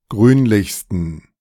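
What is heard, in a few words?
"grünlichsten", German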